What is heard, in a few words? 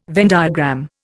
"Venn diagram", Indian